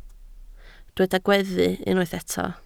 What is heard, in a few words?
"gweddu", Welsh